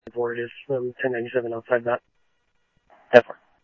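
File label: police department, scanner audio trunk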